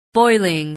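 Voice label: "boiling", English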